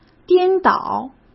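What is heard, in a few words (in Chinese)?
"颠倒", Mandarin Chinese; chinese flashcards; hsk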